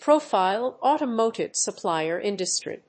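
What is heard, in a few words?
"profile of automotive supplier industry", English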